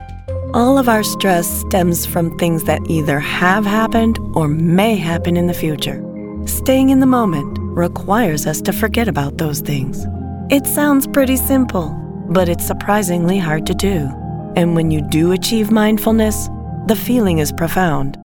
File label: Wellness trailer; Coachingwellbeing